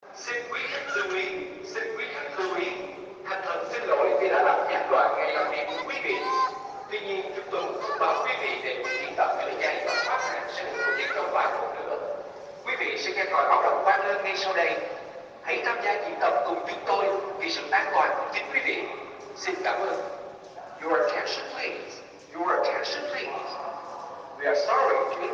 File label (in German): feuerwehruebung durchsage